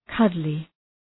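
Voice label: "cuddly", English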